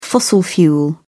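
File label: "fossil fuel", English, British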